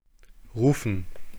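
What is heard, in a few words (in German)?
"rufen", German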